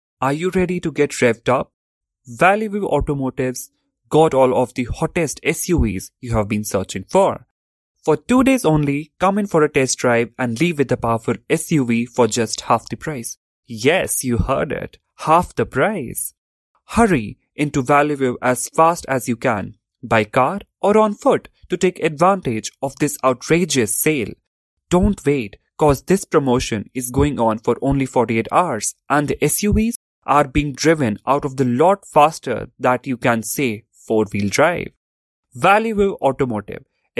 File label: Car Commercial